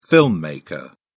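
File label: "filmmaker", British English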